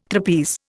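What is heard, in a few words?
"trapeze", Indian English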